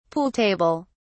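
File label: "pool table", British English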